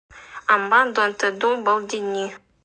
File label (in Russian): НАНАЙСКИЙ В тайге живет тигр